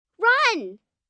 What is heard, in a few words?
Run, Calls, 1 girl, 9 yrs.; Talking; VoiceBox